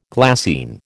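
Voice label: "glassine", American English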